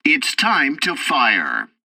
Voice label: tutorial fighter fight, english; War Thunder

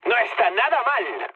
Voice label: trick, mood med, speech; War Thunder

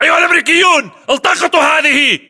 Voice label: inform attack grenade, battle chatter, english

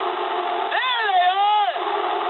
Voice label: eng enemy detected mood med, speech eng

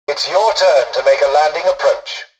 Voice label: tutorial dialogue, english; War Thunder

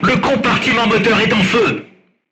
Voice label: voice message fire in tank, crew tanks french

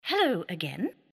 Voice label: Neutral Hello; Civ5

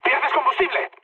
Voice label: tank damaged, mood high, speech; War Thunder

